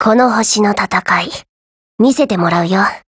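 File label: GO ATTACK Japanese; UKM2000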